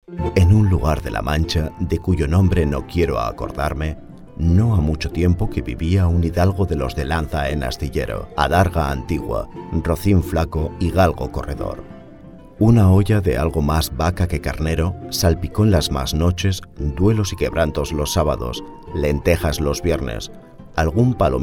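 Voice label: Spanish European Narrative; GoLocalise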